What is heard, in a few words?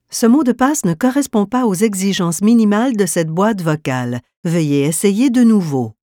french 48KHz